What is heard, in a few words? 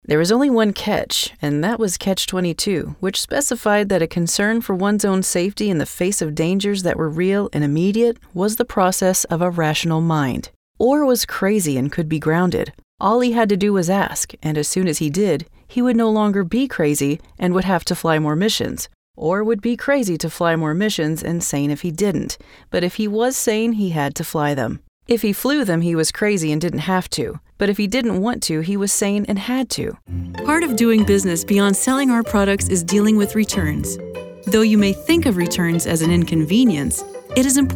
Narration